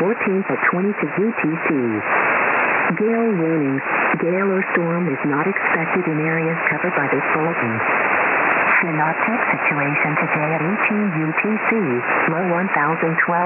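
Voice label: Norway coastal radio south 1728 khz tx; bergen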